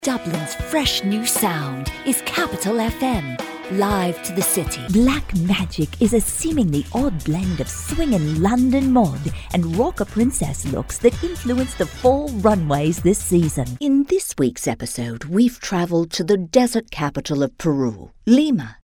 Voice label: english british tv shows